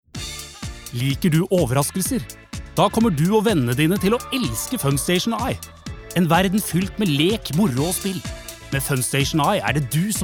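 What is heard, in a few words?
Norwegian cheerful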